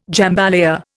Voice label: "jambalaya", Indian